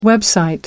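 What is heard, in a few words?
"website", British English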